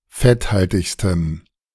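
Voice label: "fetthaltigstem", German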